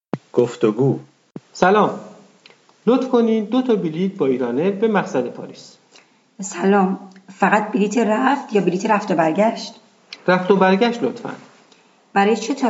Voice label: Dialog, persian